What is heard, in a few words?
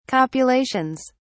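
"copulations", British English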